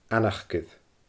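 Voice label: "anacair", Scottish Gaelic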